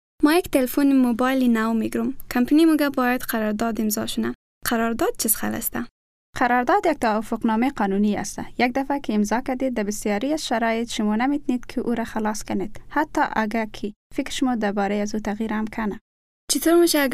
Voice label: contracts hazaragi